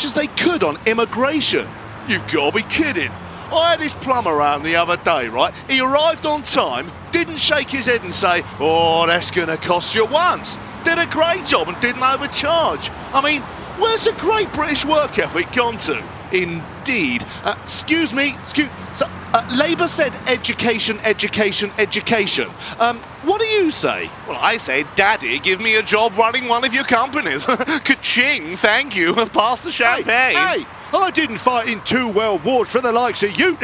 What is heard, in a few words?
Street Reporter Characters